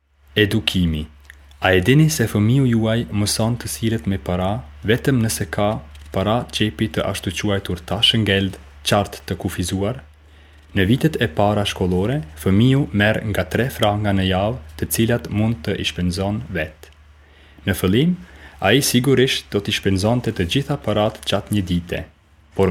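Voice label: Albanian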